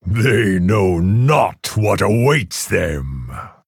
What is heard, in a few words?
Male Orc Picked